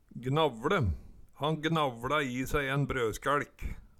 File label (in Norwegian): gnavLe, Numedalsmål